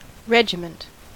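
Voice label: "regiment", American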